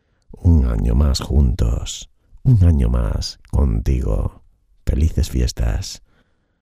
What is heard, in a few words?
jingle navidad spanish christmas voiceover